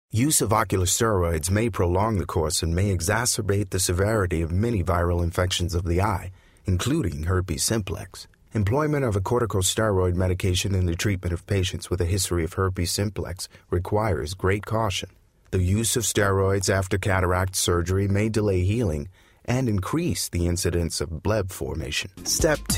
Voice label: american e-learning